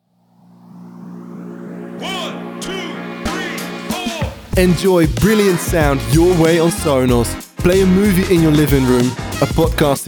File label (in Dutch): Engels; Sonos demo